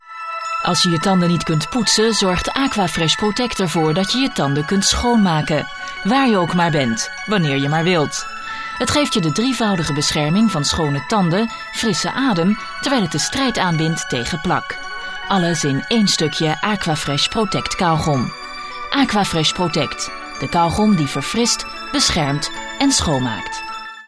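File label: Dutch; Dutch, European, Male, Home Studio, 20s-30s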